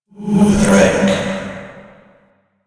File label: Alt Fire Whispers; Weapons; Warframe; Tenno; Grimoire